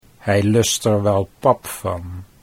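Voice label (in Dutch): hij luistert wel, Dutch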